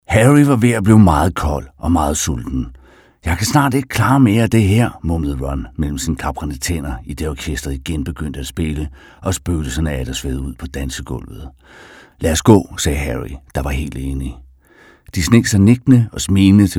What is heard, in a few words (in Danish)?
Lydbog